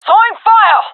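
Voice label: throwing fire, gendarmerie female; vo; agents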